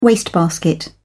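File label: "wastebasket", British English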